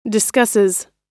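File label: "discusses", American English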